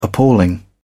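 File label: "appalling", British English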